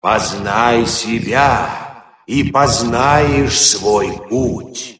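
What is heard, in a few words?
approach, Russian; God of War Collection Volume 2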